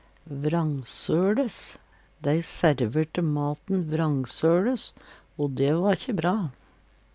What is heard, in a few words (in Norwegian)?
"vrangsoLes", Numedalsmål; Dei snudde vrångsules i springarn før i tie.; Adjektiv